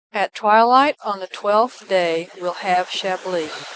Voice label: speech est